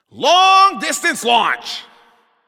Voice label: Announcer Long Hit To Other Side